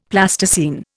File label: "Plasticine", Indian; Dictionary